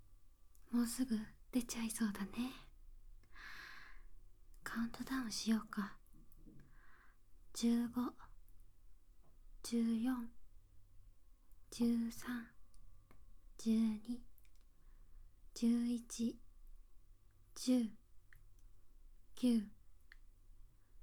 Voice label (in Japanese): カウントダウン ステレオ